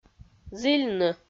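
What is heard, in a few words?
"зільны", Komi-Zyrian, female; audio dict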